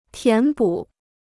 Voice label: "填补", Chinese